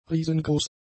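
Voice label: "riesengroß", German; adjectives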